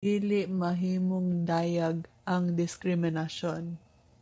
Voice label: Cebuano; discrimination may not be blatant - dili mahimong dayag ang diskriminasyon